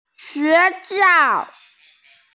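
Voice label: "xue xiao", Mandarin Chinese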